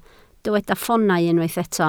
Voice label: "ffoonau", Welsh

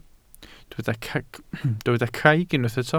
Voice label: "craig", Welsh

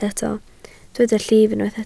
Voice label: "llif", Welsh

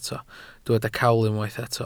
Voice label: "cawl", Welsh

